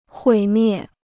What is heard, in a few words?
"毁灭", Chinese